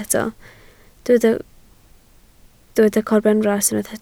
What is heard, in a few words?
"corbenfras", Welsh